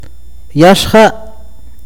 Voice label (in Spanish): gusano, akateko